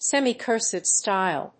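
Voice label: "semicursive style", English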